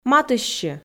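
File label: "матыстчы", Komi, female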